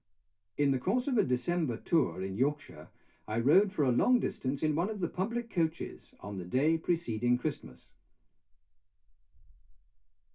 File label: male speech english